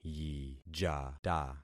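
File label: "yijata", concatenated syllables; Affixing experiment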